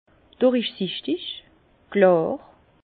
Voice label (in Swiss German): "klar", Bas Rhin; vin; limpide